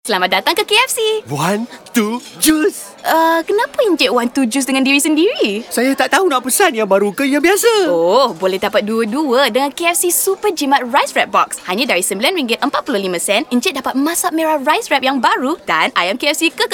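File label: Bahasa Malaysia Cheerful character read; KFC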